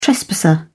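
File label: "trespasser", British English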